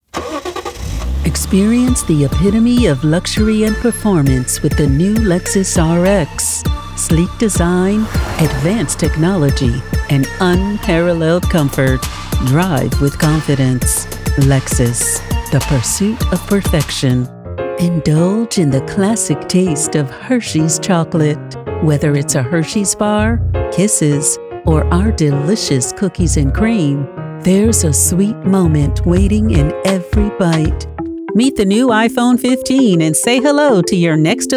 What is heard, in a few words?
Commercial